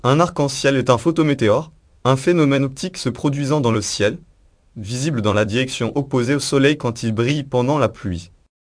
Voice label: speaker, fr FR; piper voices; upmc